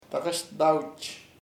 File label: together, chamacoco; juntos